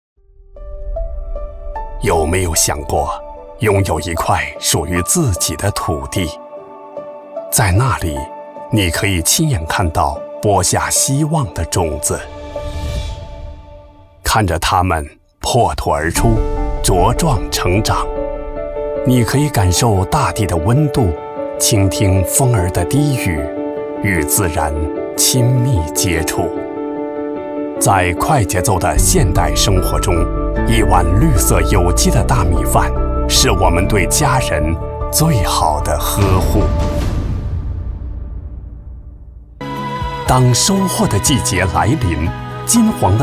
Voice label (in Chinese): 男 品宣 兴凯湖大米